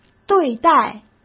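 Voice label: "对待", Mandarin Chinese; chinese-flashcards; hsk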